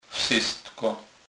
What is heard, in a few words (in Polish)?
"wsystko", Kurpie